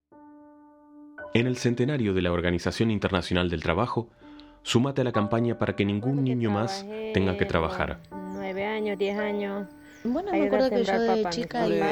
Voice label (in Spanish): Spot Radio; argentina; 100 voces; suma tu voz